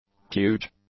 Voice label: "huge", English; adjectives

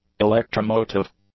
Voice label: "electromotive", English; adjectives

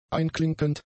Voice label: "einklinkend", German; adjectives

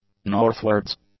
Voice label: "northwards", english; adjectives

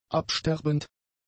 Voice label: "absterbend", german; adjectives

